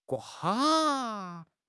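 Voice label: "gua4", Mandarin Chinese; HSK; HSK4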